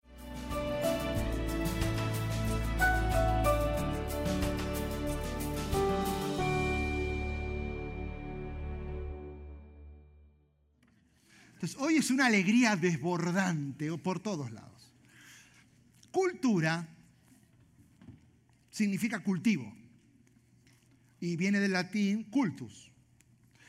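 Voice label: SERMON SPAN, Spanish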